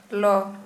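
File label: Santali female burned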